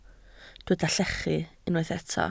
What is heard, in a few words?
"llechu", welsh